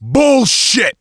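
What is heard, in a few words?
reaction negative, male; survivor; coach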